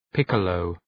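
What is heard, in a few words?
"piccolo", English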